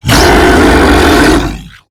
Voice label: Octopede Pain; Warframe; MiTW; Battle Barks

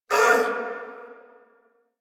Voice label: Pain Corpus Venus Male; Warframe; Battle Barks

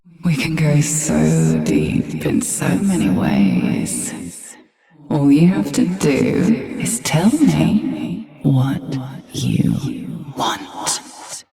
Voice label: Ambient Barks, en

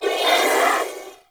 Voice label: Sentient Pain, Barks; Enemies; Warframe; Symbilyst

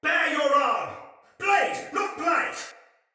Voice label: Soldier Male; Battle; Sinecure; en

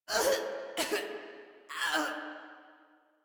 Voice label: Death Female; Warframe; Modular Corpus Female

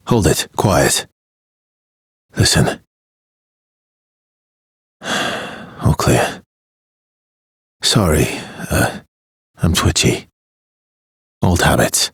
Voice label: Ambient Barks, en; Warframe; Mall Romance; Arthur

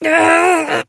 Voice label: Horse Stop Adult; Duviri

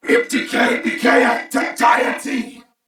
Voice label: Attack, Corpus Venus Male

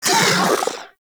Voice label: Vocal Death

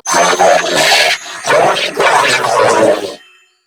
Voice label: Cover Me Grineer Female; New War; Narmer

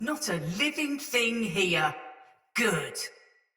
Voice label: Patrol Spoken Soldier Mace Agent Female; Battle; Sinecure Melee

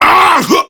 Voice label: Death Prime Grineer Male; Tau; Battle Barks

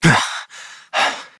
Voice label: Operator Voice, en; Operator Mission; Generic Land

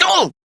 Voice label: Pain; Warframe; Battle; CorpusAristocratManager; Treasurer; en

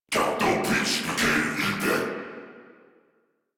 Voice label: Patrol, Battle Barks

